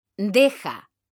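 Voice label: "DEJA", Spanish; mekanta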